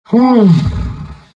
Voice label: happy; Rubick

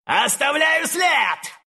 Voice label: move, ru; dota2

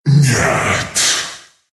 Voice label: dragon death, ru; dota2

